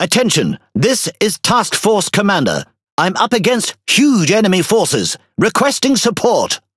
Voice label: Pirate; PVE; World Of Warships